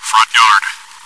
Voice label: chitchat, bot, voice commands; svencoop